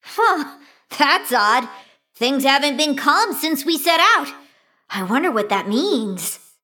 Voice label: Female Mecha Gnome